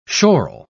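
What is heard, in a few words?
"schorl", British English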